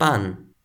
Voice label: "pan", Toki Pona; pan: Romance, bread; パン (pan): Japanese, bread; pão: Portuguese, bread